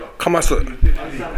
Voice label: "kamasu", minamiyamagata